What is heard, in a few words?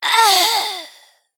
Female Broken Death